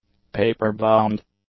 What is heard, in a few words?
"paper-bound", English; adjectives